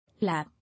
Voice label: "Lap", English

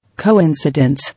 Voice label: "Coincidence", English

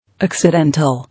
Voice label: "Accidental", English

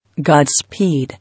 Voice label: "Godspeed", English

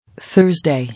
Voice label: "Thursday", English

